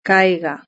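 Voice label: "caiga", Spanish; verb-conjugator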